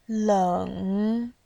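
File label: "leng3", Mandarin Chinese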